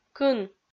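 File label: "кын", Komi-Zyrian, female; kpv audio dict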